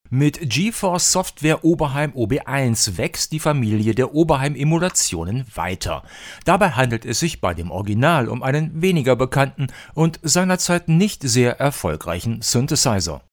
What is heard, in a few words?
Rode Broadcaster